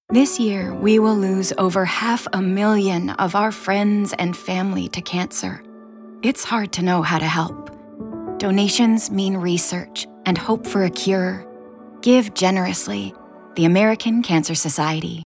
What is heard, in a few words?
PSA